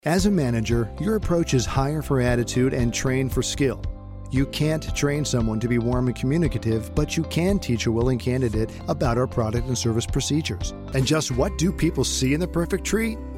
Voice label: Narration